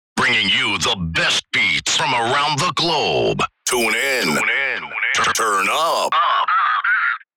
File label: Vocals radio voice sweeper tune in turn up